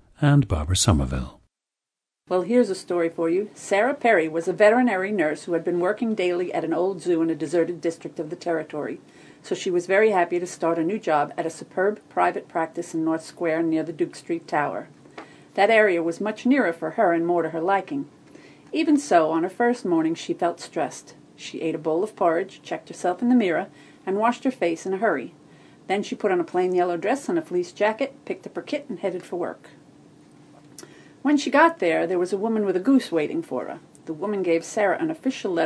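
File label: new york, International Dialects of English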